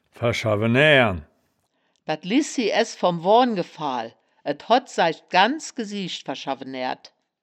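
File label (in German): "ver-schawe-naeaeren", Wittlicher Wörterbuch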